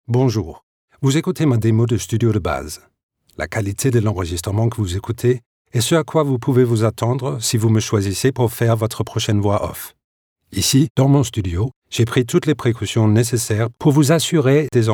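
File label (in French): français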